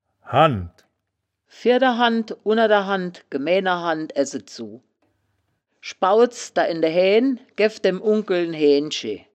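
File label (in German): "hannd", wittlicher woerterbuch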